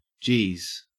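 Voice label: "geez", Australian English; pronunciations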